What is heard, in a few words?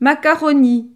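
"macaroni", French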